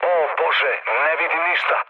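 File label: Serbian searchlite mood low, speech; War Thunder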